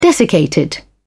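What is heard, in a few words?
"desiccated", British English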